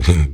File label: vox fx; E-Lab; X Static Goldmine 2; akai